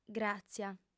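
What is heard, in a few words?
"gràtzia", Sardinian, female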